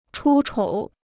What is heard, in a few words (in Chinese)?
"出丑", Chinese